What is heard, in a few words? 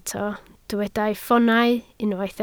"ffoonau", Welsh